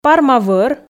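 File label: "парма-вӧр", Komi, female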